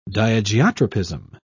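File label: "diageotropism", British English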